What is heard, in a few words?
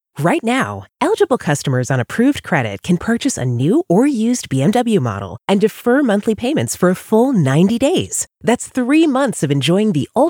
Radio and podcast